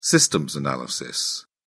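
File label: "systems analysis", British English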